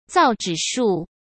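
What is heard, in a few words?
"造纸术", Mandarin Chinese; hsk; chinese flashcards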